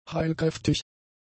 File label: "heilkräftig", German; adjectives